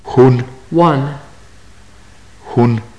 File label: numbers, maya